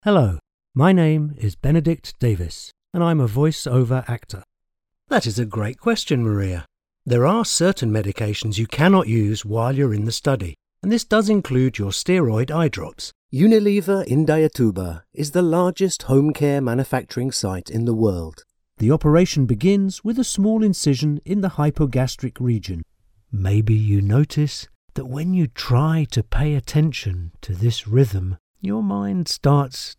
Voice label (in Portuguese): Nativo Ingles